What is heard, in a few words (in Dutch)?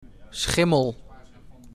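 "Schimmel", Dutch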